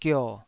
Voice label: "gio3", taiwanese

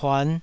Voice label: "puan5", taiwanese

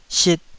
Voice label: "sit2", Taiwanese

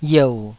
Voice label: "yeu3", taiwanese

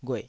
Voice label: "ghueh3", Taiwanese syllable

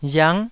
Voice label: "riang9", taiwanese

